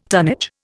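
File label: "tonne", Indian; Dictionary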